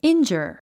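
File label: "injure", English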